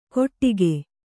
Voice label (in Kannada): "ಗೊಟ್ಟಿಗೆ", kannada